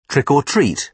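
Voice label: "trick or treat", British English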